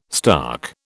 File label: "stark", British